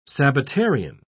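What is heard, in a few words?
"sabbatarian", British English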